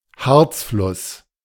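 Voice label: "Harzfluss", German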